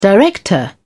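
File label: "director", British English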